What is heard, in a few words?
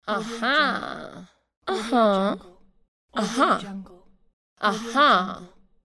woman says aha